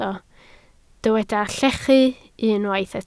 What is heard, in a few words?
"llechu", welsh